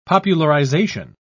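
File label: "popularisation", British English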